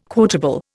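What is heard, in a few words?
"quotable", Indian English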